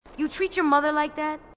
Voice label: female marine, marine tough

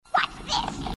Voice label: watch this, grunts

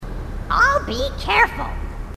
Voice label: grunt; h2dialogue; grunt crazy

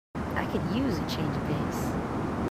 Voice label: marines female, marine tough

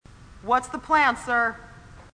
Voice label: female marine, sassy

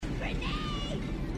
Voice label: grenade, grunts dialogue

